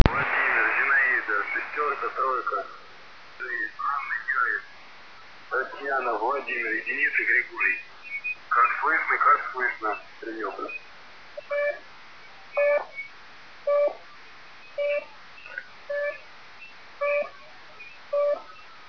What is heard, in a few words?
voice rus mil